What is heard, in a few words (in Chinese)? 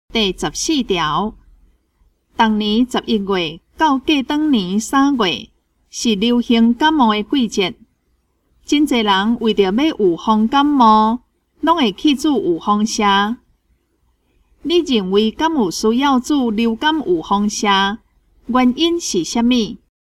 口語表達, 閩南語; 閩南語語言能力認證; 金安咱來考C